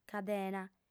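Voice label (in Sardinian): "cadena", female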